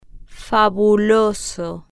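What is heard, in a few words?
"fabuloso", Spanish, female, slow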